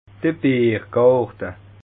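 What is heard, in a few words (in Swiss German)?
"biergarte", Bas Rhin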